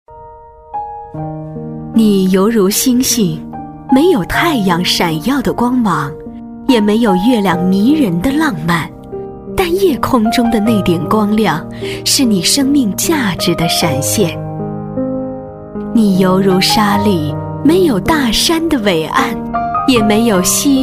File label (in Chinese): 女 颁奖配音【积极 感情】; 深情感人